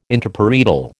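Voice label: "Interparietal", American English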